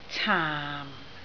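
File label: "caam4", Cantonese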